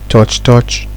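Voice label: "touch touch", Nigerian Pidgin, male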